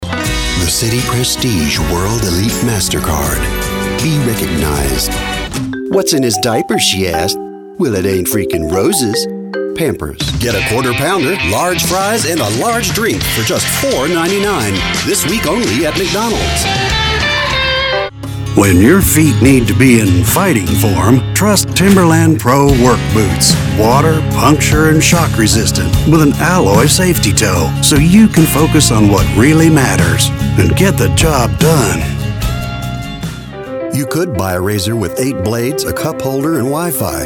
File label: Commercial